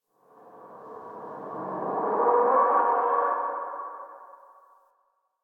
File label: Backwards Whisper Yell; ambience